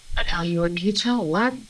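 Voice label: voice acting, es, female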